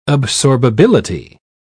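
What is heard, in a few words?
"absorbability", British English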